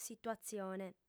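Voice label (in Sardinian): "situatzione", female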